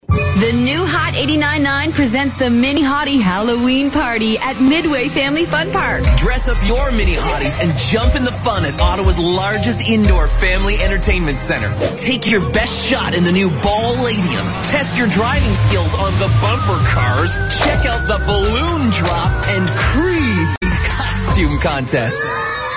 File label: commercial; the mini hottie halloween party at midway family fun park